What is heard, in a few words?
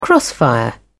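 "crossfire", British English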